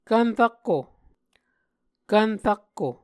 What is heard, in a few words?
"kvn rakko", Muscogee; Mvskoke Words with Repeated Consonants; Onvkv Ohhvyayices; A Dictionary of Creek/Muskogee; SIL International